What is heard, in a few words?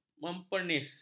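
"mempernis", Malay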